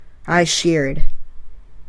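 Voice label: "I sheared", English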